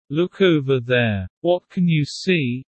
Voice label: low; Animal friends